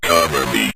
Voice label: cover Me, Synth Voice; Kenney's Sound Pack